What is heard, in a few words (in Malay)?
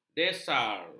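"desar", Malay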